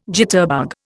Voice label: "jitterbug", Indian

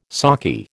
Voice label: "sockeye", American

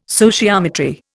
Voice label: "sociometry", Indian

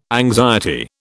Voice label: "anxiety", British

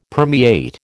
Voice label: "permeate", American

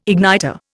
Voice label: "igniter", Indian

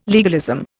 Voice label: "legalism", Indian

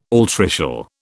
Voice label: "altricial", British

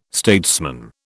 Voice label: "statesman", British

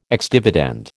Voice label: "ex dividend", American

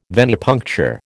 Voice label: "venipuncture", American

